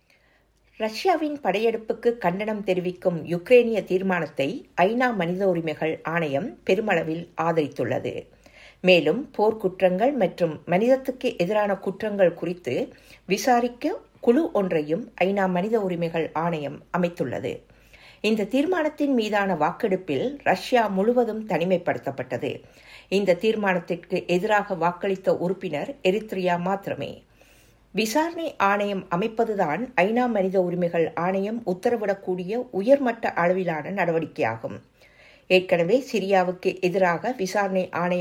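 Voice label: Tamil, General; GoLocalise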